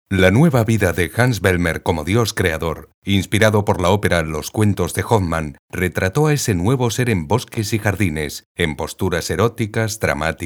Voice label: audioguide